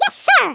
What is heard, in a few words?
"yes sir", American; Speech; International